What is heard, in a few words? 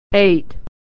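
"eight", American English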